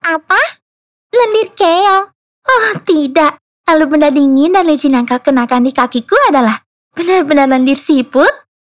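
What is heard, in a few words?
character Indonesia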